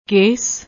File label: "kes", Punjabi; ਕੇਸ